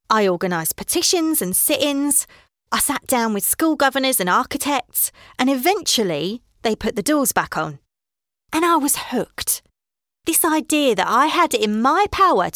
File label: Essex accent